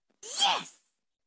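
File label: "yes" malch, boy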